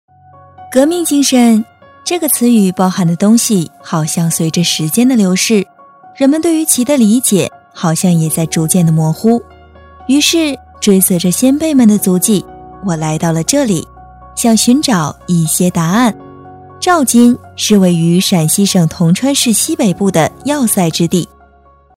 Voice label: 女 专题; 照金; 青春活力女配音，声线多变

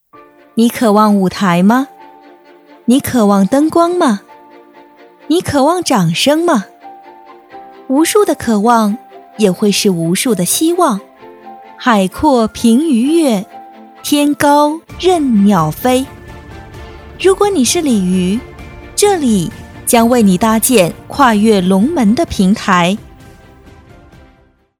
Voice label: 女 专题; 华东明星学院宣传片; 青春活力女配音

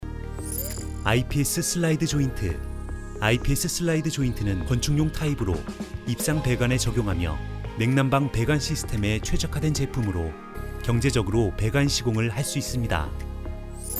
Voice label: 产品说明 科技感, 小语种配音

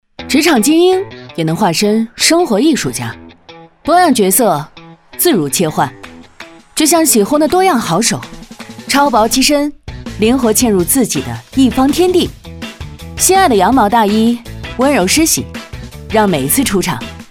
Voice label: 女, 酷帅个性, 时尚广告

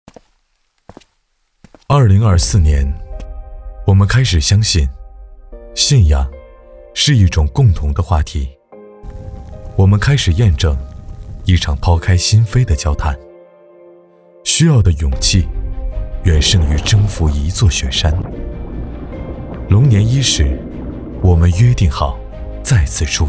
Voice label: 男 走心; 我们开始相信

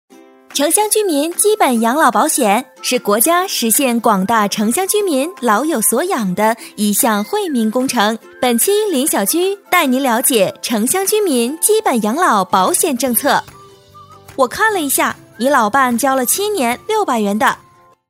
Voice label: 女, MG, 养老, 青春活力; 青春活力女配音

